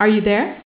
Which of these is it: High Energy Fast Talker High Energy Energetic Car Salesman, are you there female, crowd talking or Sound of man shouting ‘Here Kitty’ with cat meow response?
are you there female